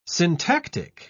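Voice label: "syntactic", British English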